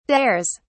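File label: "dares", British English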